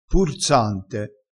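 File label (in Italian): "pursante", vocabolario dialettale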